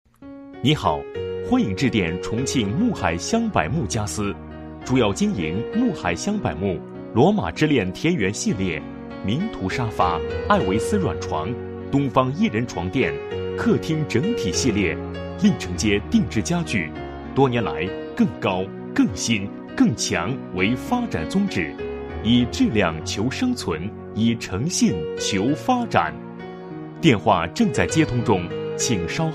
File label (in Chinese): 男, 彩铃; 家私公司